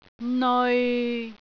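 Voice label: "neoi6", Cantonese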